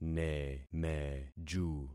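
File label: "nemeju", concatenated syllables; Affixing experiment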